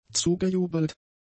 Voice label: "zugejubelt", German; adjectives